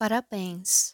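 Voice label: "Parabens" Brazilian Portuguese; happy birthday in portuguese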